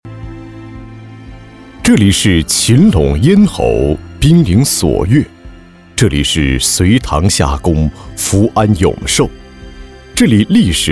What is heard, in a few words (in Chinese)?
男 政府专题 廉洁文化 乡村振兴; 精准监督; 双推进; 廉动力